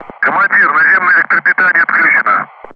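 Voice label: Speech Sound Russian Common Ground Crew Messages; DCS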